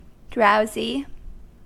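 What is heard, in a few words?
"drowsy", US English; pronunciation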